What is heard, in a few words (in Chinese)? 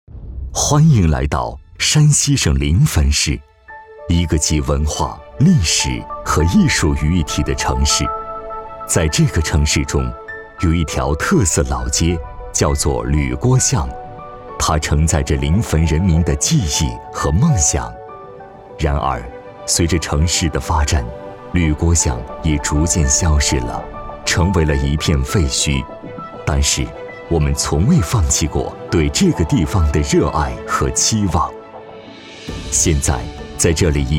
男, 旅游, 讲述感; 铝锅巷